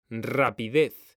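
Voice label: "rapidez", Spanish, male; mekanta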